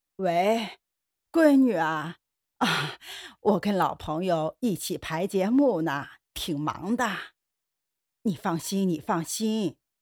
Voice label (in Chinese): 80岁老太太接电话; 角色